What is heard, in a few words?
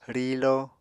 "rilo", Apatani